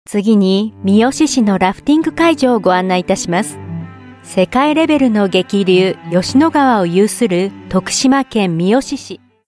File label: Japanese